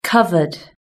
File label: "covered", british english